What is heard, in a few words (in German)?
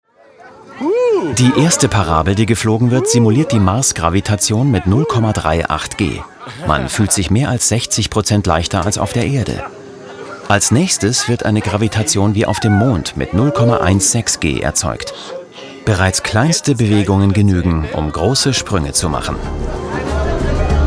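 commentary, Neutral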